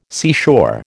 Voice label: "seashore", American